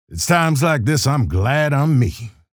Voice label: chatter positive; keel; frag